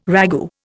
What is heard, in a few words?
"ragout", Indian